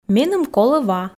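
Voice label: Komi, female; меным колӧ ва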